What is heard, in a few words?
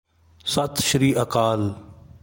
Punjabi Good night; Greetings